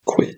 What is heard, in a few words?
Quit, screen reader audio; kiss-flixel; simplewindow-test